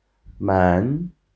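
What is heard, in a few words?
"men2" Chinese, female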